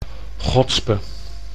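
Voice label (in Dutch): "gotspe", Dutch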